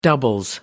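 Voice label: "doubles", British English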